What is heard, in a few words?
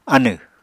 "anẁ", Apatani